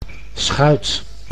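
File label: "schuit", Dutch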